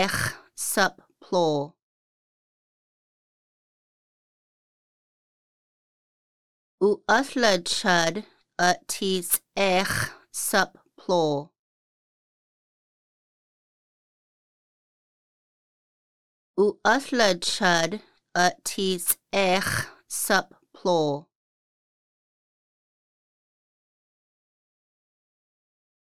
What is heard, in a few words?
I am eating frybread, Lushootseed; ʔuʔəɬəd cəd ʔə ti c̓ix̌ səpləl